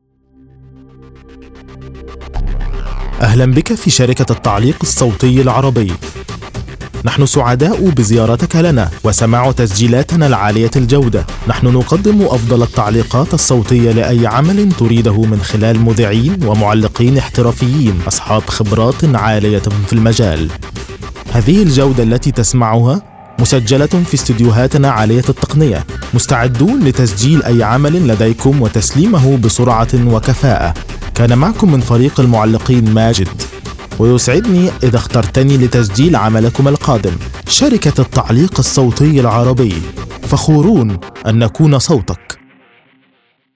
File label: Male Arabic showreel; the arabic voice over company